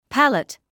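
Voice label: "palate", British English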